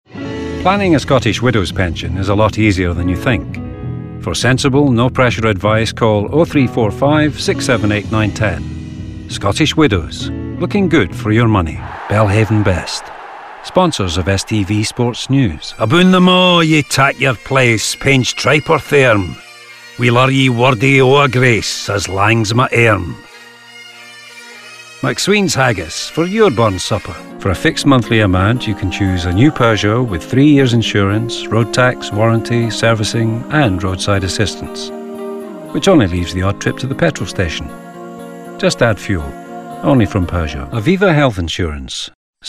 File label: Commercial; Voicereel